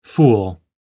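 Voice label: "fool", English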